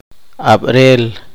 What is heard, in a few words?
poqomchi; l que orina